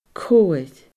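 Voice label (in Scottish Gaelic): "cobhair", Scottish Gaelic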